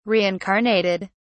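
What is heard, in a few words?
"reincarnated", British English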